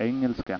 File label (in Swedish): "engelska", Swedish